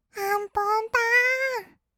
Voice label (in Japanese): あんぽんたーん, kawaii voice; ASMR; 色々なワンショット